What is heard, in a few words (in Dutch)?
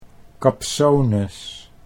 "kapsones", Dutch